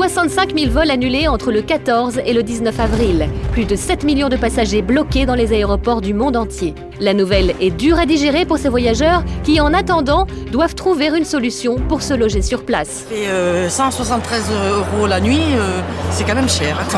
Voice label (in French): reportage volcan